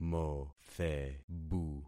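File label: "mothebu", concatenated syllables; Affixing experiment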